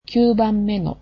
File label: "ninth", japanese